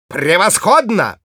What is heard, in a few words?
positive vocalization, ru